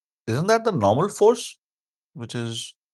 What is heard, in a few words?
voice message